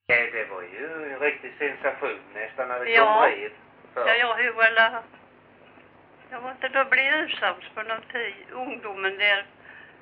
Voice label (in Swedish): Grimeton, dialekter; Folkminnen